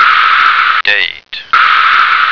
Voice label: "date", American